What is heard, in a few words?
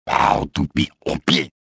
give up, trandoshan; XBOX